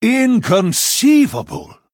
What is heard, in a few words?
Male Human Wow; en